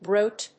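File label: "bruit", English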